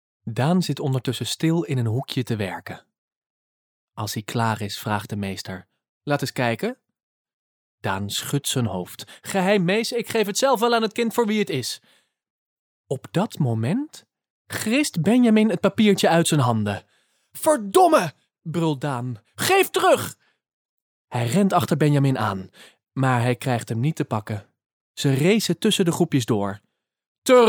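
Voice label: Dutch, Netherlands, male; dutch voice over talent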